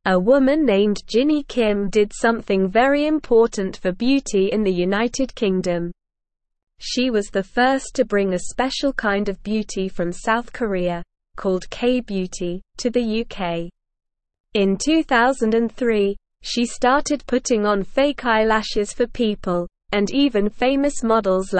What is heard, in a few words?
English Lower Intermediate SLOW Reading; Jinny Kim Bringing K Beauty to the UK; English Newsroom